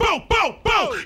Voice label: "bo bo bo", ooh ahh

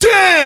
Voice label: "damn", expletives

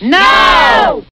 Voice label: "no", group; expletives

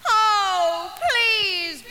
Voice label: oh please female; Voice; expletives

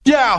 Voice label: "yo", Voice; ooh ahh

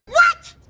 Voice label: what, cartoon; questions

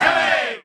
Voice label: "hey" group of men

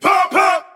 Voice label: "hup hup", voice; ooh ahh

